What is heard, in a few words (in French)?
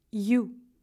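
lettre yu, Russian; phonetique et graphie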